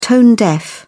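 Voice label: "tone-deaf", British English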